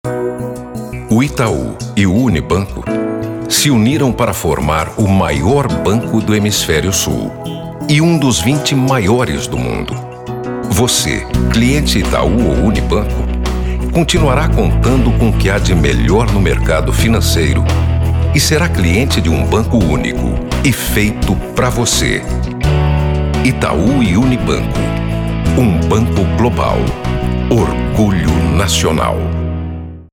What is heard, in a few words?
spot; ITAU UNIBANCO HOLDING